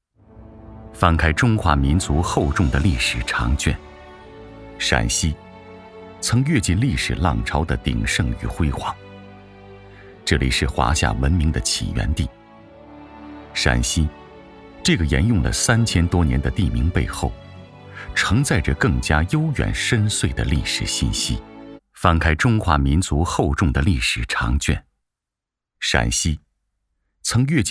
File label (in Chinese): S男 陕西, 纪录片